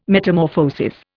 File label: "Metamorphosis", Indian